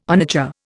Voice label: "onager", Indian